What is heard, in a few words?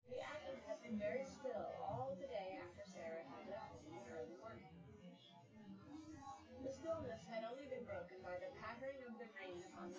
female synthconv